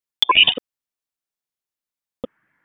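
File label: Dispatch 1, P25; WSO DISP 1; wake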